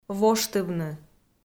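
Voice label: "воштывны", Komi, female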